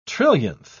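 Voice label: "trillionth", British English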